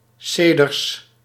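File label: "seders", Dutch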